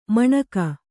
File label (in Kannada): "ಮಾಣ್ಕೆ", kannada